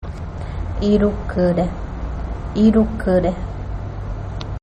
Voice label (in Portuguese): cinco fala feminina, karaja